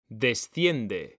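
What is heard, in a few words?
"DESCIENDE", Spanish; mekanta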